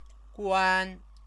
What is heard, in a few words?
"guan", Chinese; 关; close; verb